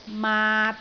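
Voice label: "maat3", Cantonese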